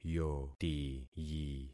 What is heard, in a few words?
"yotiyi", concat syllables; Affixing experiment